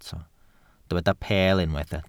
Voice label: "peel", Welsh

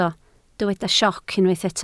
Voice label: "sioc", Welsh

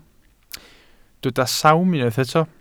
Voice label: "sawm", welsh

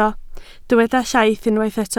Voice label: "llaith", Welsh

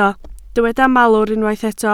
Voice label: "malwr", Welsh

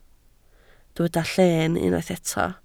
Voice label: "lleen", Welsh

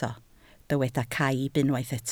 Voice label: "caib", Welsh

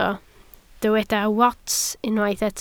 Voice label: "wats", Welsh

